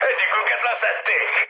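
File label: Hungarian aircraft destroyed mood high, speech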